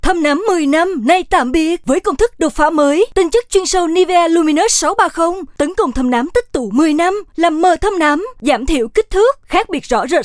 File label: Vietnamese Female